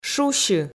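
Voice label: "шусьы", Komi, female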